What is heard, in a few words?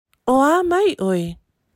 How are you O a mai oe, Samoa; Samoa Language Week; 2022 Pacific Language Weeks